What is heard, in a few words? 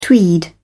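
"tweed", English, British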